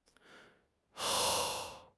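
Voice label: "Yuan2", Mandarin Chinese; HSK3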